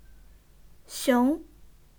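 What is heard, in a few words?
"xiong", Chinese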